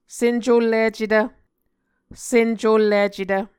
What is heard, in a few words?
"sencullicetv", Muscogee; Mvskoke Words with Voicing Consonants; Onvkv Ohhvyayices; A Dictionary of Creek/Muskogee; SIL International